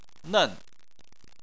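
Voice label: "nen", Chinese, fourth tone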